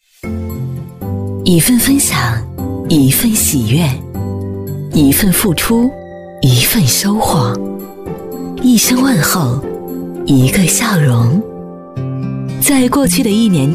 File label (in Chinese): 女-电台片花【元旦-快速】; 质感磁性